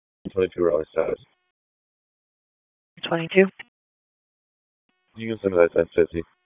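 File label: Police District Dispatch, p25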